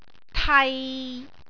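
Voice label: "tai6", Cantonese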